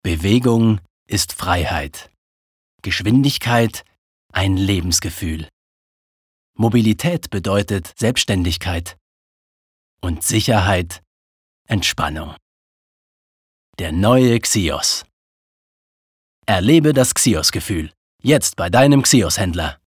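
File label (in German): advert, Sanft; Xios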